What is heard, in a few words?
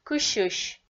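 "кысьысь", Komi, female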